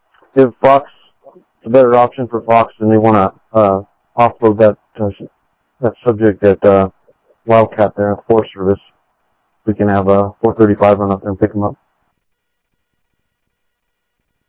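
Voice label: Sheriff East County, scanner audio trunk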